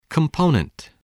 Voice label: "component", english